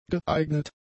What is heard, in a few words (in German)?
"geeignet", German; adjectives